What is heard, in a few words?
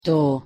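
"to", Hungarian pronunciation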